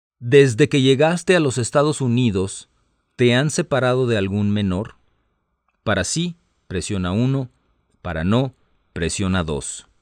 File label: Spanish, hotline